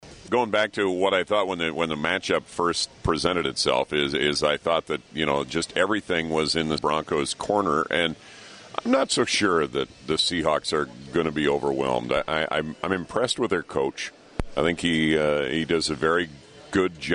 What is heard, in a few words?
RADIO TALK SHOW SUPER BOWL PREGAME